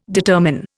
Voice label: "determine", Indian

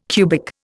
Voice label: "cubic", Indian

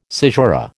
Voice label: "caesura", American

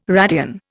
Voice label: "radian", Indian

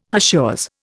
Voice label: "hachures", Indian

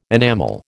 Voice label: "enamel", American

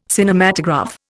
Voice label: "Cinematograph", Indian

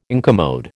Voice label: "incommode", American

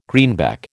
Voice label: "greenback", American

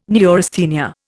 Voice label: "neurasthenia", Indian

